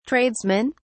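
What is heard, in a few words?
"tradesmen", British English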